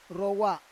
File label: "rowa", Roon